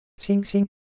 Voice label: Korean, ideophones; Motion

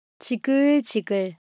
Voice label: Korean Sound, ideophones

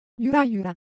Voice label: Japanese Motion, Ideo Phones

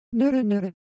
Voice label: Japanese Texture, Ideo Phones

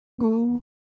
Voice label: Japanese, Ideo Phones; Shape

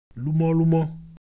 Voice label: Ewe Motion, ideophones

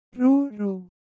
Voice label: Japanese Sound, Ideo Phones

